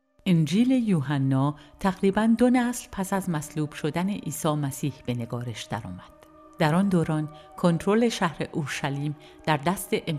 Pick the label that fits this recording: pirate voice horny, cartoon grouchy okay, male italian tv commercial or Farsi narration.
Farsi narration